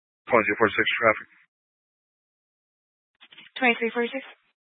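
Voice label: Sheriff Dispatch, Scanner audio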